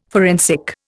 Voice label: "forensic", Indian